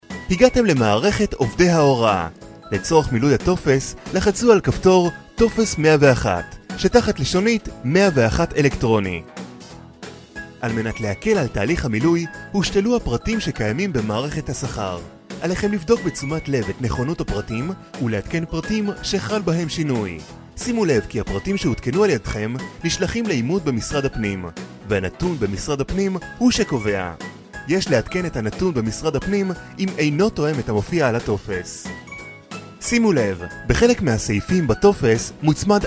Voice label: hebrew training e-learning

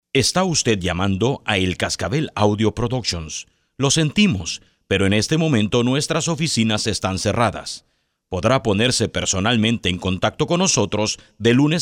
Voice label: On Hold

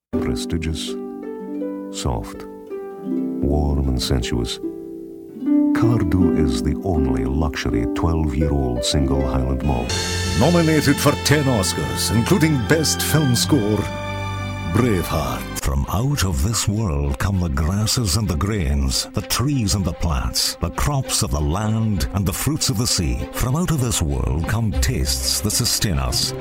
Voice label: Scottish

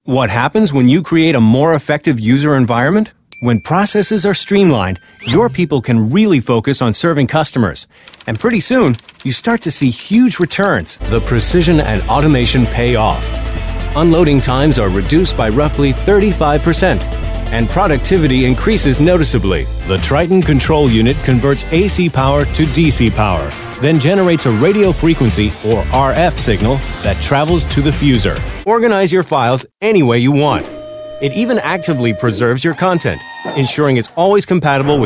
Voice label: Corporate

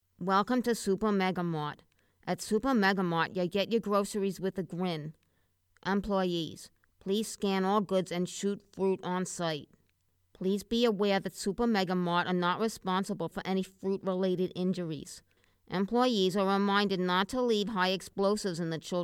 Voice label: video game character voice

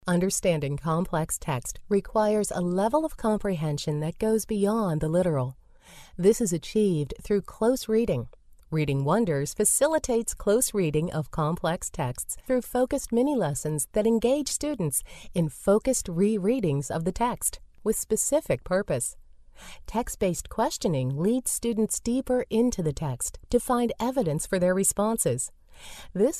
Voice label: Educational

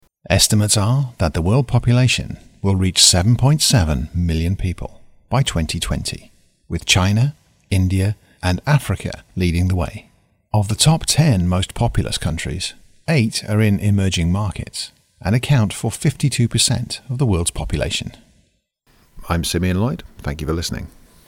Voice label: Naration

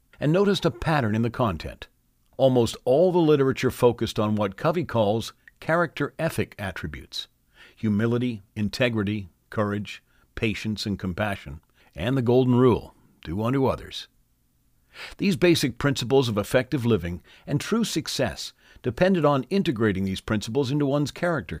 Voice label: Audio Book; The Prosperous Leader

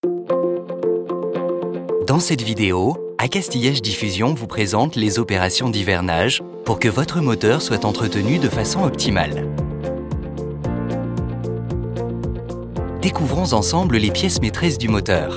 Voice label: E-LEARNING TUTORIAL; Acastillage

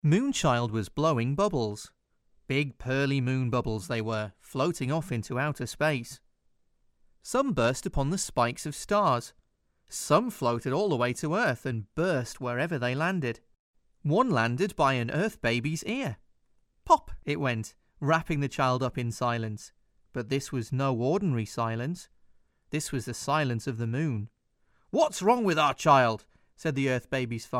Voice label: Audio Book

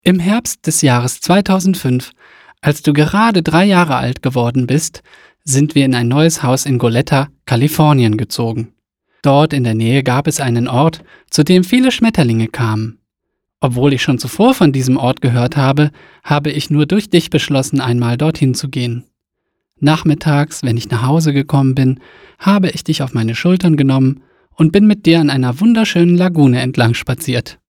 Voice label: Childrens Audiobook German

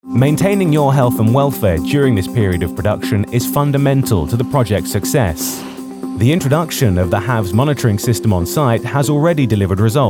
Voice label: Voiceover Showreel

